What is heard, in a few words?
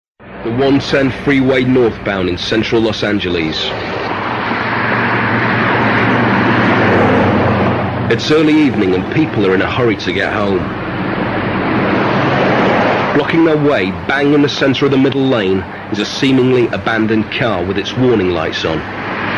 Narration; Road wars; Vocal Point